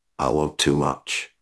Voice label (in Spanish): Voice Acting es M